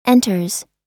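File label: "enters", American English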